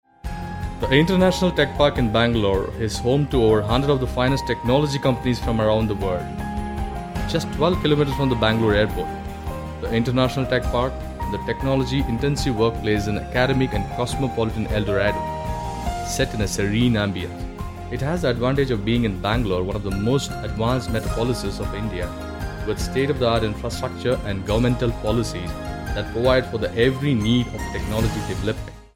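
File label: TL Accented English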